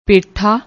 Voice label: "paithaa", Punjabi

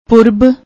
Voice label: "purab", Punjabi; ਪੁਰਬ

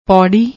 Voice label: "pourri", Punjabi

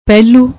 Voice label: "pehlu", Punjabi

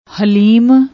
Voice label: "hleem", Punjabi; ਹਲੀਮ

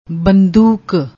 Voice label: "bandook", Punjabi

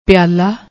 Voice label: "piaala", Punjabi

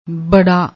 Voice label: "barra", Punjabi

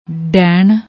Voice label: "ਡੈਣ", Punjabi

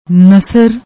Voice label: "nasar", Punjabi

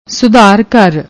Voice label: "sudhar ghar", Punjabi

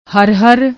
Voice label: "hrhr", Punjabi; ਹਰਹਰ

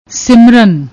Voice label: "simran", Punjabi; ਸਿਮਰਨ

